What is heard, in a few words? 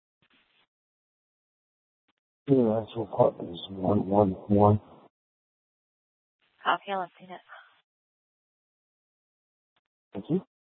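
police department Dispatch, scanner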